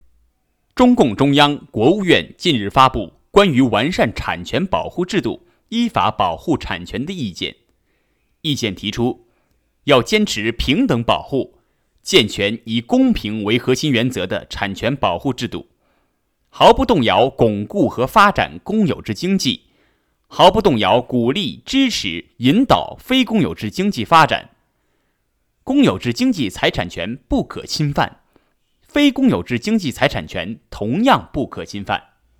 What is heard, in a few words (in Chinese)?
新闻类